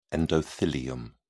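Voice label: "endothelium", British English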